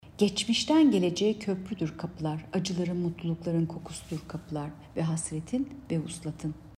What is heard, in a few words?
turkce